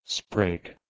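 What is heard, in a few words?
"spregh", Proto-Indo-European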